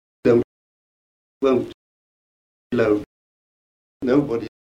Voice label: kent goat, accents; stoke